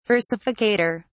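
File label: "versificator", British English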